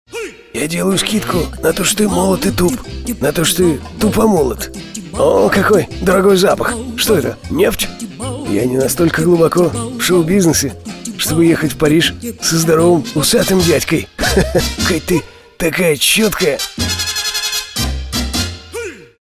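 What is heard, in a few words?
parody; Nagiev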